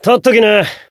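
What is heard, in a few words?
Voice heal, Japanese; hardy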